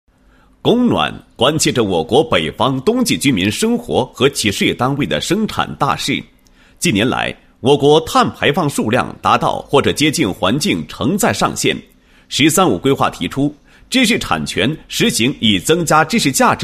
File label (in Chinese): 男 产品介绍; 数控供热大数据云计算行业节能服务平台简介